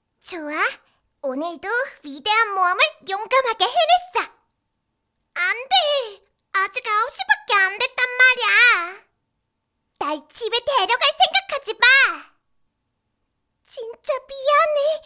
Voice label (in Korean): 성우 8세 여아 귀여운 밝은